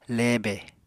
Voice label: "lebe", Apatani